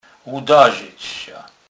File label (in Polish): "ludazec", kurpiow